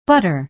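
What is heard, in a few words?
"butter", American English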